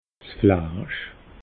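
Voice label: "fleisch", Alsatian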